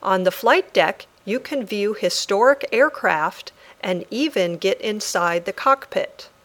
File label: Dictation